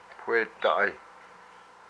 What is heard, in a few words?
"my head", hupa